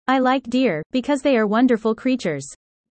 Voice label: user fast; Animal friends